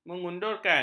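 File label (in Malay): "mengundurkan", Malay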